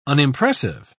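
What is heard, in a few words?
"unimpressive", British English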